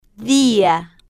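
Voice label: "dia", slow, Spanish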